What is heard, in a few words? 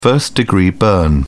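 "first-degree burn", British English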